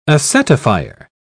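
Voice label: "acetifier", British English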